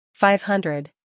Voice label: "five hundred", English